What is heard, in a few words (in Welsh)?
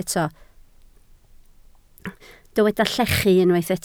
"llechu", Welsh